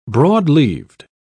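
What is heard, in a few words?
"broad-leaved", British English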